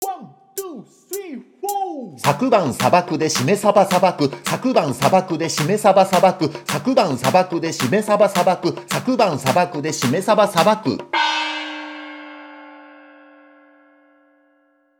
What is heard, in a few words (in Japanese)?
早口言葉リズム（中級編）